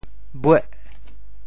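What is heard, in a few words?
"bueh8", Teochew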